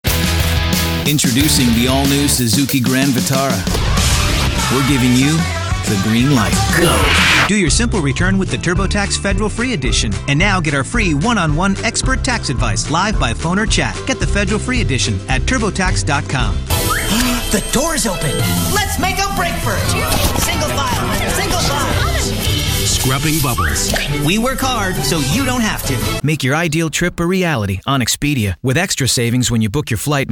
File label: commercial, men